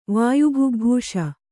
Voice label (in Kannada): "ವಾಯುಭುಗ್ಭೂಷ", Kannada